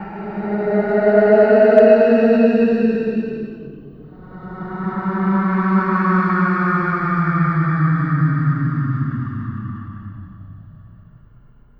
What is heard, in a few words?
help me scary; Halloween; Spider